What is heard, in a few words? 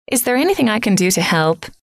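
is there anything i can do to help, female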